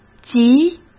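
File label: "集", Mandarin Chinese; chinese-flashcards; hsk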